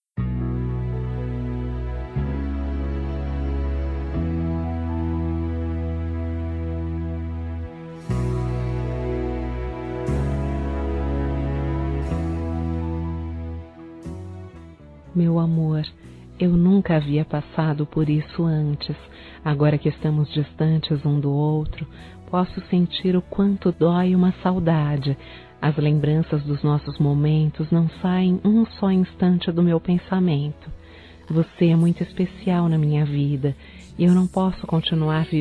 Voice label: Romantica Feminino; Telemensagens; Saudades

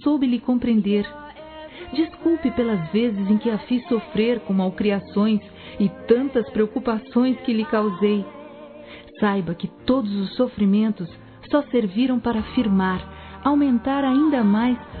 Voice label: MÃE VOCÊ É IMPORTANTE F, Telemensagens, Dia das Mães; Telemensagens 2017

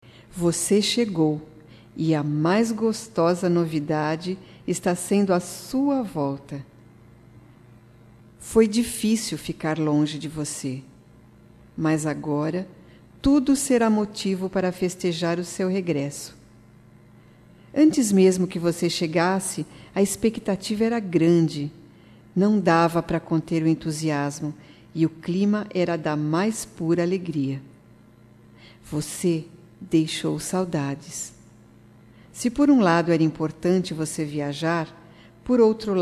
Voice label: Voz, fem; Telemensagens; Só Voz; BOAS VINDAS (PARA QUEM REGRESSA DE VIAGEM); Telemensagens 2017

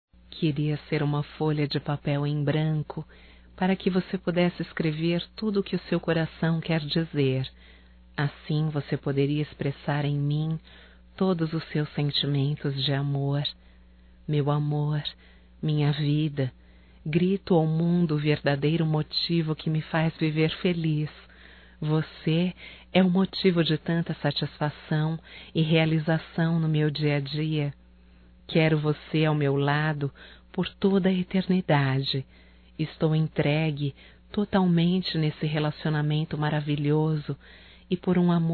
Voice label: ROMANTICA(F), Românticas, fem

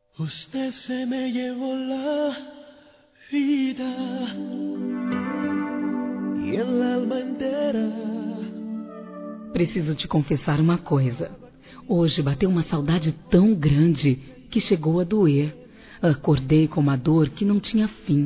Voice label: NAMORO DISTANTE F; Aniversários; ANIVERSARIO romantico; fem

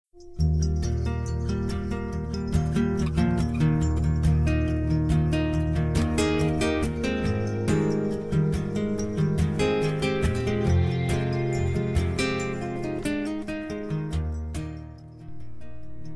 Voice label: Aniversário e Dia das Mães, Masc; Telemensagens 2017

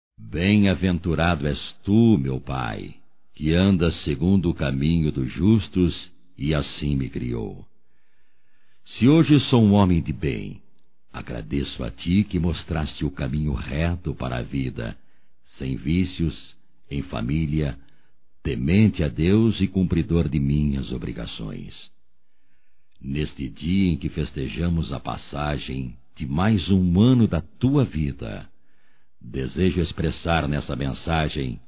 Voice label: Aniversário Pai Masculino; Aniversarios; Telemensagens 2017

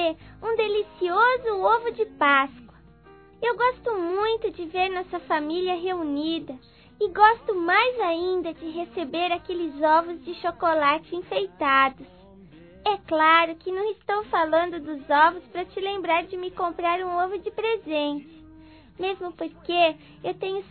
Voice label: FELIZ PASCOA PAI, Telemensagens, Infantil, fem

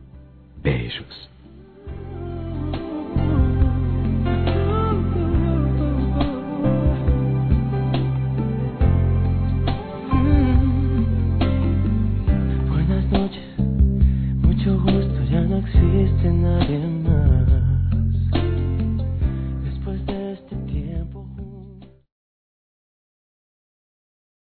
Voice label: Sonhei com Você Masculino

